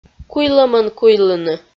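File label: "куйлӧмӧн куйлыны", Komi, female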